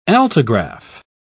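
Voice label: "altigraph", British English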